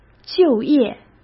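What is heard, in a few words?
"就业", Mandarin Chinese; chinese-flashcards; hsk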